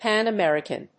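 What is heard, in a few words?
"Pan-American", English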